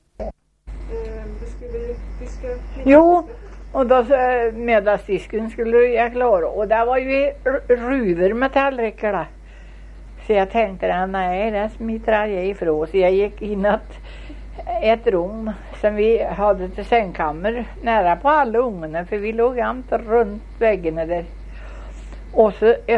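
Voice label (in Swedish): Köla Värmland, dialekter; Folkminnen; Svenska sagor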